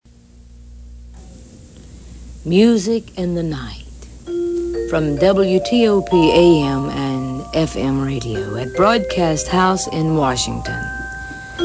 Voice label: announcement